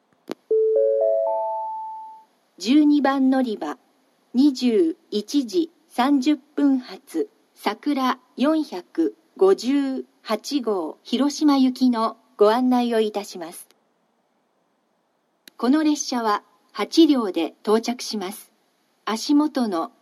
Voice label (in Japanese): 新鳥栖駅 さくら458号 広島行き, 九州新幹線 SIRIUS型放送